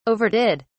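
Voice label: "overdid", British English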